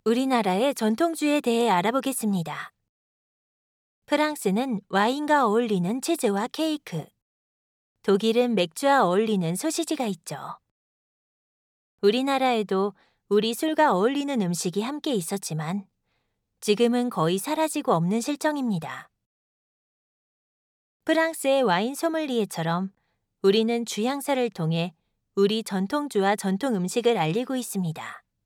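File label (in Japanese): ゆったりとした, ナレーション, 韓国語; 韓国ソウル出身。日本の専門学校、声優養成所を卒業。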